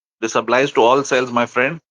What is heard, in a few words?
voice message; electrochem doubt